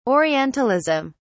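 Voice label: "orientalism", British English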